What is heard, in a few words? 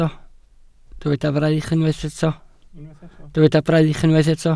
"braich", Welsh